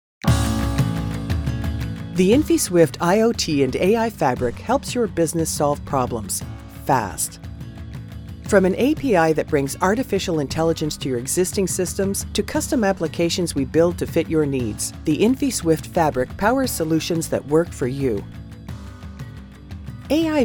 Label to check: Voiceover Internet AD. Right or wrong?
Right